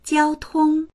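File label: "jiao1tong1", Chinese; jiāotōng; 交通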